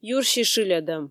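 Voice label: "юрси шыльӧдӧм", Komi, female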